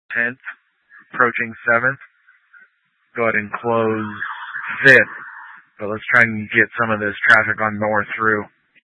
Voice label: Tactical Incidents, scanner

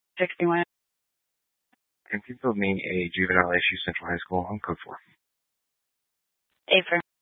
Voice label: Sheriff Dispatch, scanner

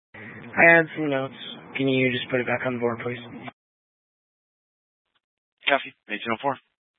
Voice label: Sheriff Dispatch; Mesa County

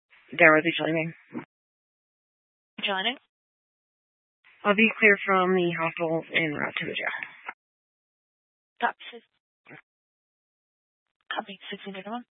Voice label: Dispatch, Scanner audio recording

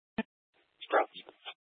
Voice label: Police Department Dispatch; Grand Junction

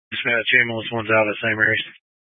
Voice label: Fire Dispatch, scanner

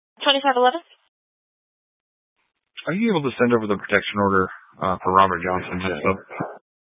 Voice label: Sheriff Dispatch, Scanner audio recording

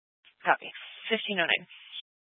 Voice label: Police Department Dispatch, scanner

